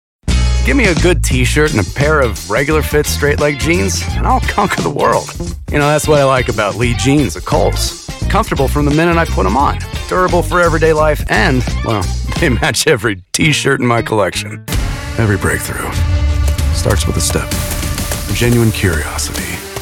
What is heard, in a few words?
Commercials